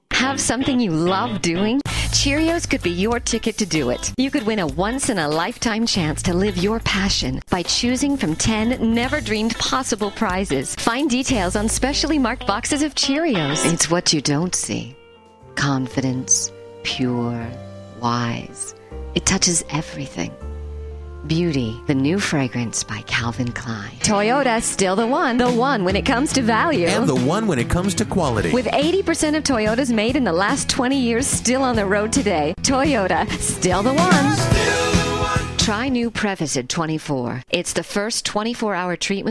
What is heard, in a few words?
commercials; Short Film Voice Over Demo